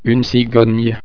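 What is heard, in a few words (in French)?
"cigogne", French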